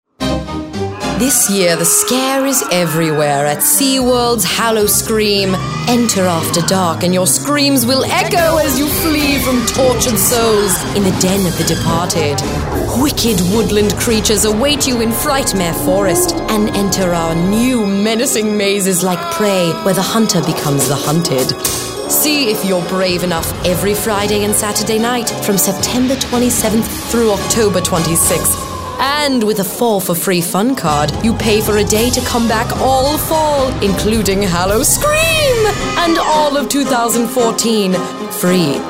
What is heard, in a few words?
witch